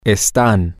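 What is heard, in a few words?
Spanish, they are temporary, male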